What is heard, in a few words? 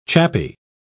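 "chappy", British English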